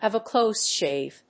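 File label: "have a close shave", English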